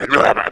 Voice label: growl, goblin; Fate The Traitor Soul; MONSTERS

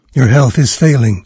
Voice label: health, narrator; Fate The Cursed King; en-US

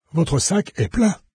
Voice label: pack full, fr, NARRATOR; Fate

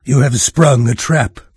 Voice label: trap, VOICES en-US NARRATOR; Fate Undiscovered Realms

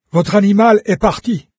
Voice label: pet left, fr, NARRATOR; Fate

